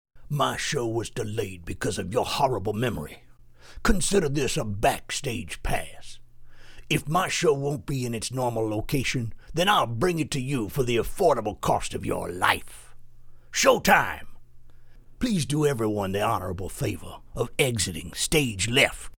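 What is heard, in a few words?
Urban, Character Voice, male voice; Showtime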